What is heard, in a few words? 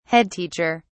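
"head teacher", British English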